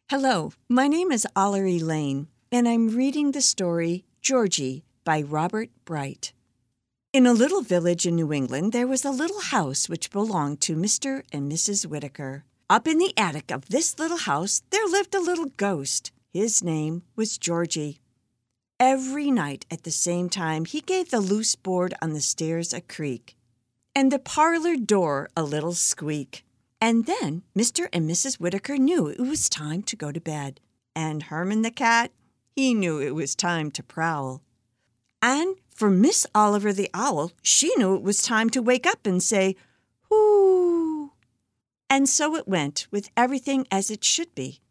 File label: AUDIOBOOK; GEORGIE